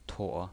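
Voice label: "tuo", pinyin voice, third tone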